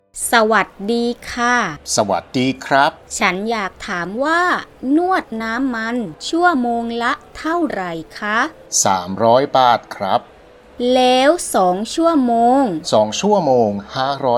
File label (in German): dialog; thai massage